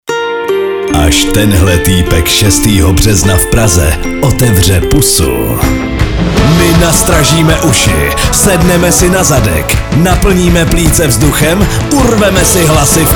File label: promo; evropa2